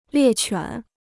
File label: "猎犬", Chinese